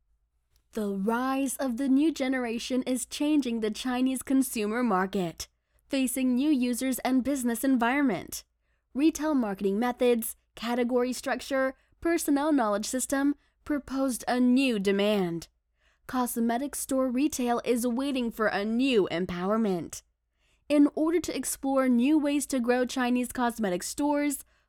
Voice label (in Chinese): 零售业会议视频旁白英语解说; Retail Conference Retail Collection Video